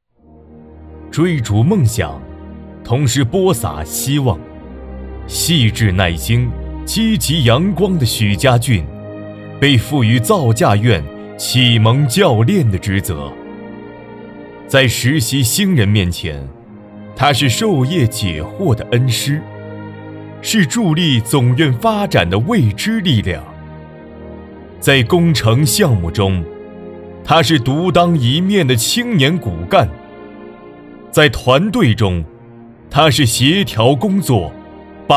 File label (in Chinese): 专题大气